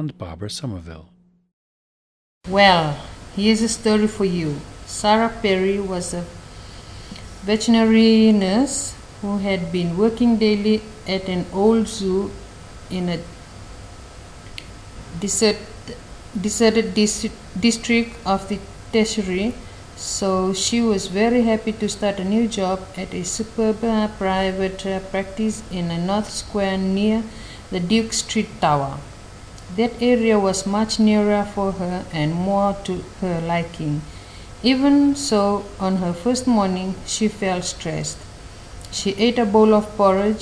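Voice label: fiji, International Dialects of English Archive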